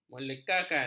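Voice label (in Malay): "melekakan", Malay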